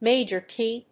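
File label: "major key", English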